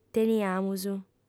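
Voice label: "teniamus", Sardinian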